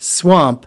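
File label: "swamp", English word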